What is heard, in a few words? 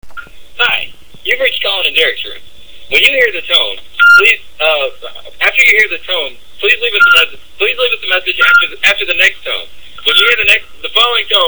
Answering Machine